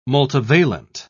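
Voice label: "multivalent", British English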